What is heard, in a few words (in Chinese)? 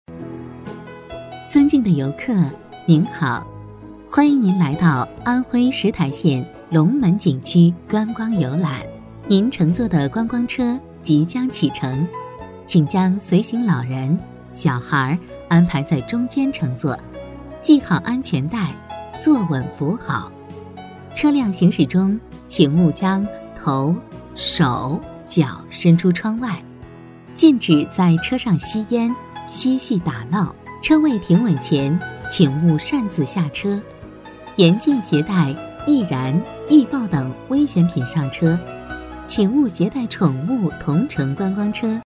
女 温馨提示 景区提醒; 旅游风光景区配音